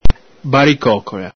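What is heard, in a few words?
"baricocola", Veneto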